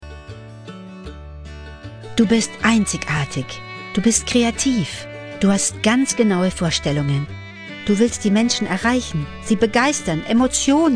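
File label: German, female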